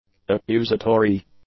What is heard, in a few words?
"accusatory", English; adjectives